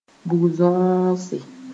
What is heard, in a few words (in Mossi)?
"bug-zõose", moore